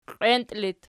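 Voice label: "qienti" locative, Archi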